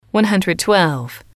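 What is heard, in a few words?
one hundred twelve, female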